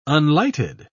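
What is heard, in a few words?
"unlighted", English, British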